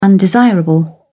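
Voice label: "undesirable", British English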